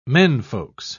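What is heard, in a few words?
"menfolks", British English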